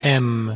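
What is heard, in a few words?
"let", male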